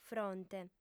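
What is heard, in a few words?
"fronte", female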